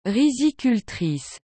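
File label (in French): "rizicultrice", French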